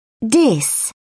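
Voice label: "dis", British English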